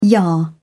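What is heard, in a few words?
"yah", British English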